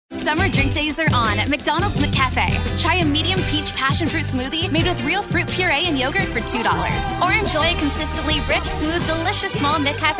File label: women commercial; Energetic, emotive, Gen Z, relatable, girl next door, conversational, upbeat, and sincere.